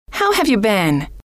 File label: how have you been, F